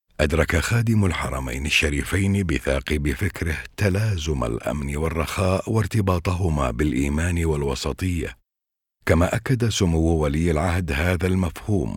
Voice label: Arabic